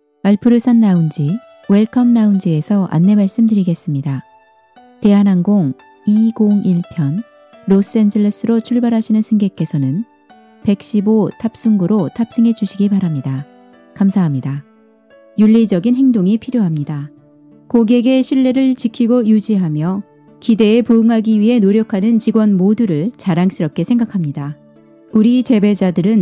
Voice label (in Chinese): 韩语女 外语 小语种